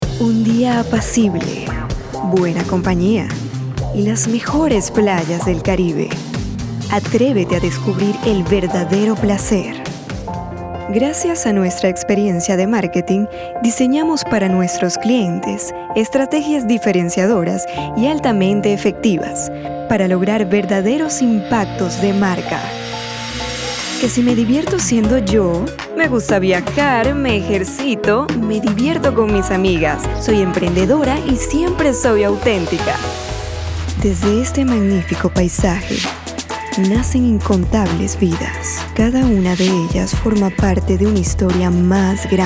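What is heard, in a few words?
Comercial, voiceover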